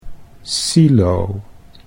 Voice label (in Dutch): "silo", Dutch